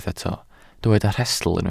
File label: "rhestl", Welsh